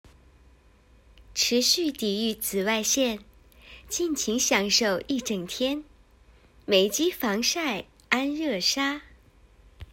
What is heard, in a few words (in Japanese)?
CM, 北京語; ANESSA